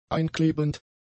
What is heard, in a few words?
"einklebend", German; adjectives